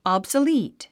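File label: "obsolete", English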